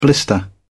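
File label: "blister", British English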